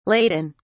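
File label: "ladin", British English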